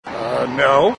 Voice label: "Uhh No", taunt